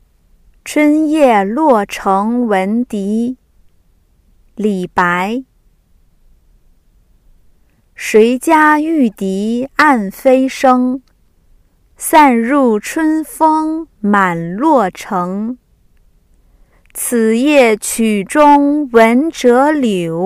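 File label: Speech Contest Chunyeluochengwendi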